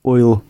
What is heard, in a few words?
goodbye, gwentian